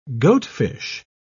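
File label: "goatfish", British English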